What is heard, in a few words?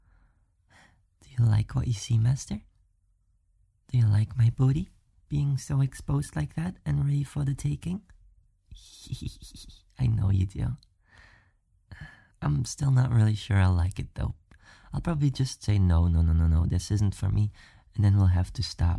voice catboy WITH editing and processing